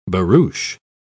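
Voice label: "barouche", British English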